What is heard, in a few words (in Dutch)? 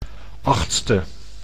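"achtste", Dutch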